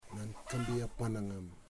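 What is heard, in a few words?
where are you, Wamut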